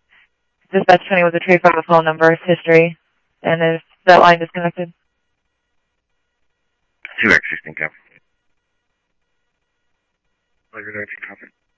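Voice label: Mesa Police Department simulcast, scanner audio; MESA PD 1 Fiesta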